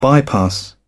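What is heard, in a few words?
"bypass", English, British English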